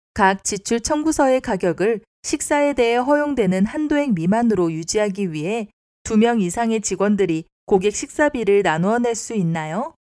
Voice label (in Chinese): 韩语女 轻快